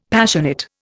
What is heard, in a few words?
"Passionate", Indian; Dictionary